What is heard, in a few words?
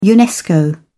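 "unesco", British English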